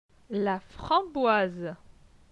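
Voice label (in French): "La framboise", French; vocabulaire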